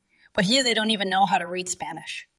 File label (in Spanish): Voice Acting es